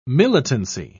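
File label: "militancy", British English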